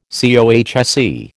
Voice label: "COHSE", American